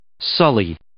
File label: "sully", English